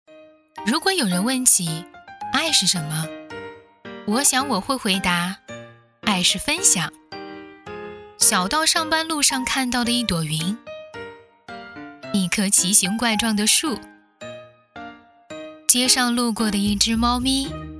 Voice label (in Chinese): 走心甜美活泼